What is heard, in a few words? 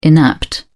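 "inapt", British English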